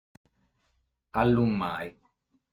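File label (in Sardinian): "Allumai", Sardinian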